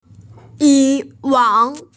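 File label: "yu wang", Mandarin Chinese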